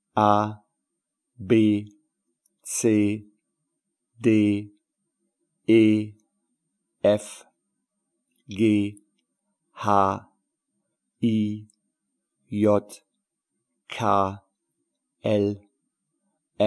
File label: Alphabet German Male